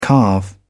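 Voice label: "calve", British English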